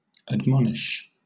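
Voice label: "admonish", British English; pronunciations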